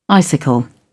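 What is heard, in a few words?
"icicle", British English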